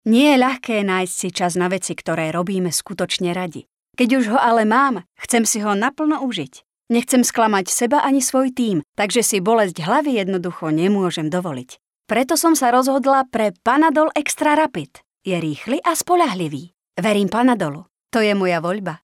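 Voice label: Female Slovak commercial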